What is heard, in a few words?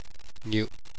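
"gyu", Japanese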